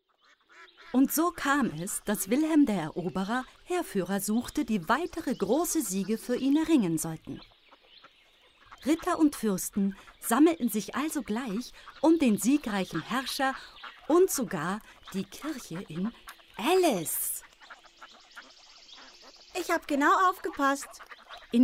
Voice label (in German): Voice Over Lehrerin Ton; GESANG/Klavier/Komposition